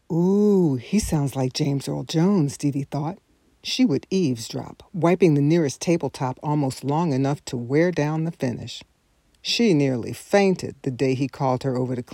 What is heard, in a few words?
Fiction Audiobook